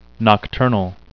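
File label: "nocturnal", English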